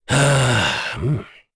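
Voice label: Vox Deny, Korean; Dakaris; kingsraid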